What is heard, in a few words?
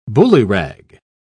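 "ballyrag", British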